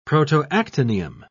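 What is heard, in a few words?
"protoactinium", British English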